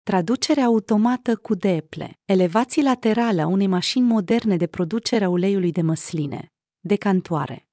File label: romanian; oliveoilmuseum